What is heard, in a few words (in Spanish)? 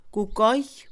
Mixe, Mujer; almohada; Casa; INALI